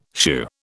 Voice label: "shoo", British